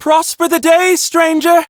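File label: Ambient Male Brisk, Dialog; Duviri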